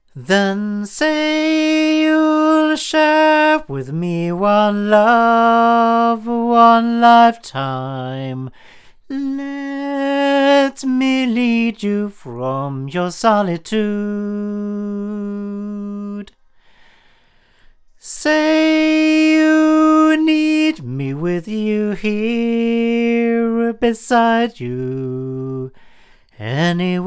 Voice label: Avantone BV-1 cardioid male

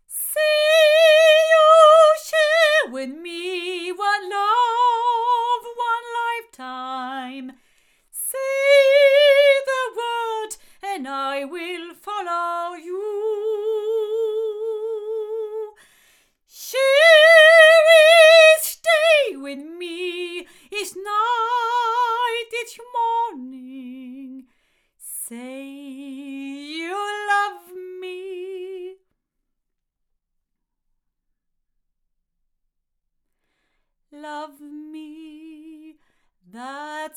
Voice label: Rode NT2 omni female

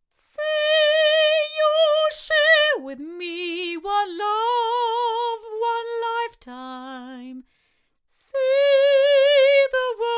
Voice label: 8 female; studio projects

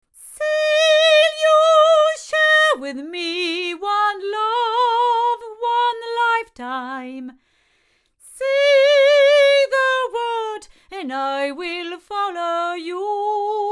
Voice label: LineAudio SM6i female

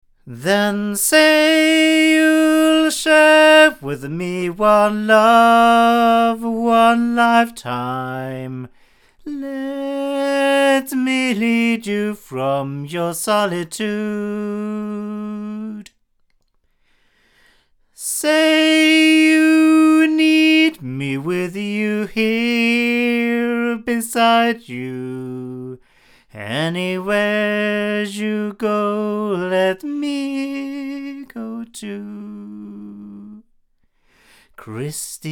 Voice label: sE 2200a male; se electronics

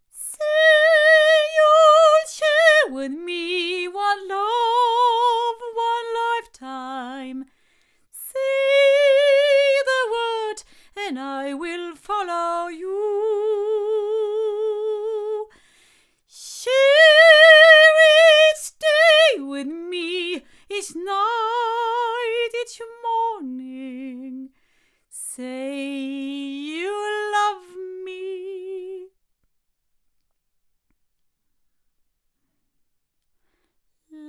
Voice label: Generis GT2 Mk2 cardioid female

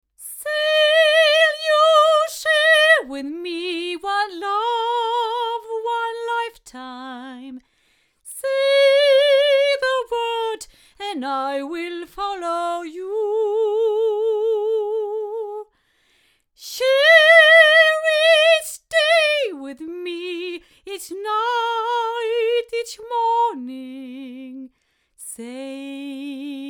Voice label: 8 female; studio projects

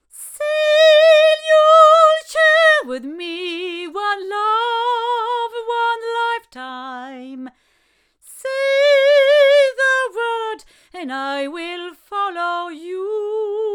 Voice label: Avant CK-1 hypercardioid female

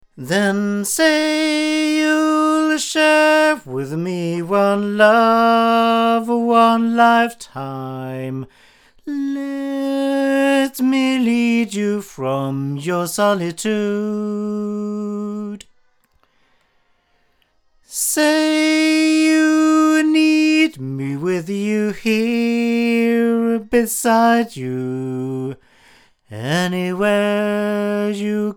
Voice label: Reactor cardioid male; BLUE

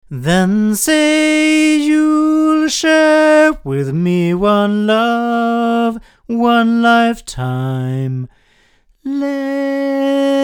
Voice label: ADK A51TL 8 male, microphones

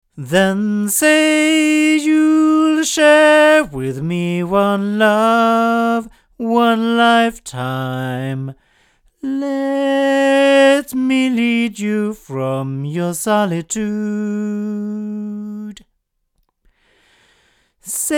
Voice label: ADK A51ST cardioid male, microphones

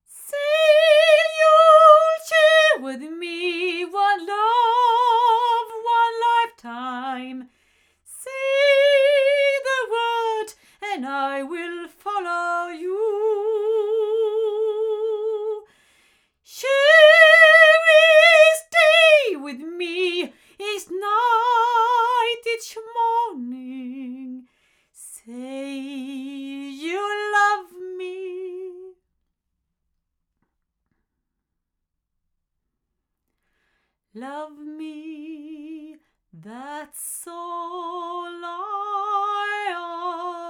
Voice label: Neumann TLM170 omni female

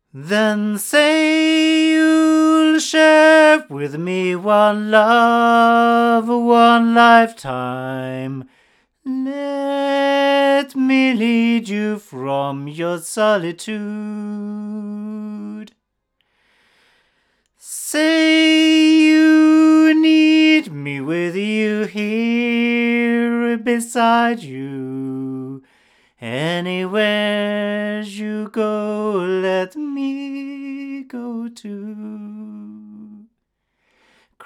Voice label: Sontronics Omega male, microphones recordings

